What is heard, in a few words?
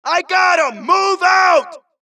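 suppress, dialogue, Allies; mohaa; Mission 2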